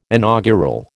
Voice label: "inaugural", American